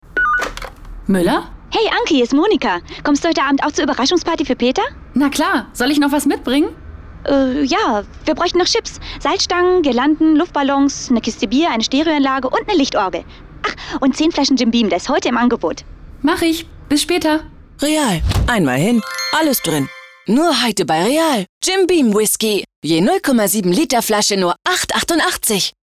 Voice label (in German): Werbespot; real